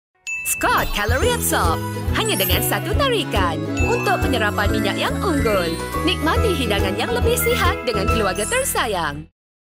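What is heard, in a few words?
Malay Malaysia female voice over, sales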